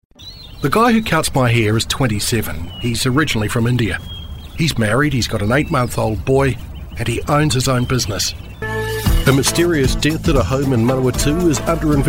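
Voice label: Trustworthy, male, English speaking, New Zealand Voice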